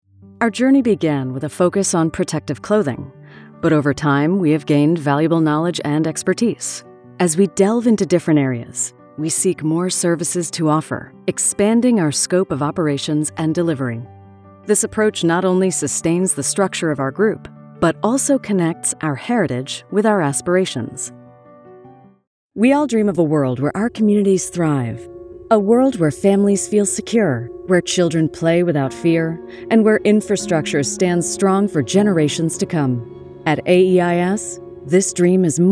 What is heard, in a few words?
VO Warm Caring Samples